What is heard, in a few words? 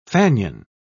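"fanion", British English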